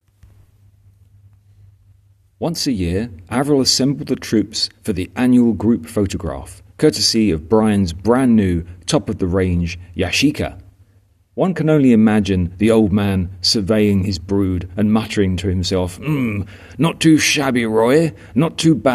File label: voiceover